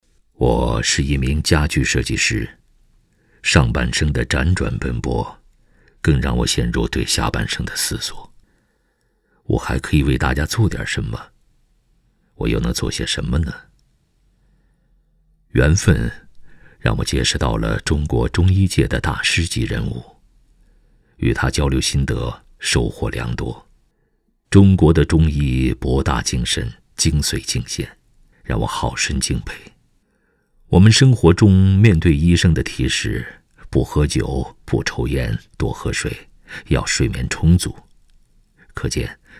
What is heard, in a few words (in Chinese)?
男 个人专题 磁性浑厚